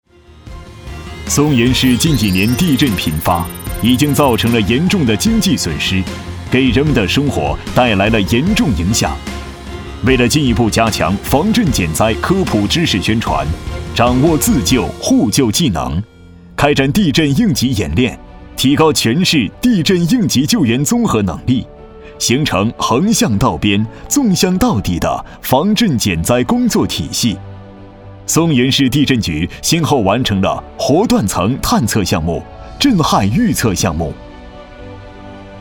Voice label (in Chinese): 男 专题 解说; 松原地震局